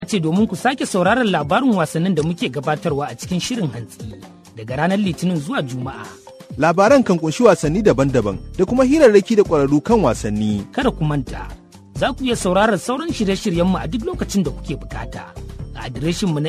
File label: hausa